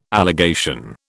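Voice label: "allegation", British English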